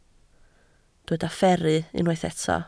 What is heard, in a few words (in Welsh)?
"fferru", Welsh